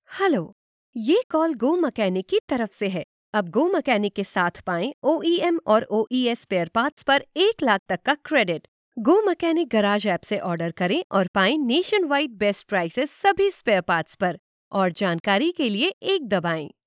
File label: Hindi IVR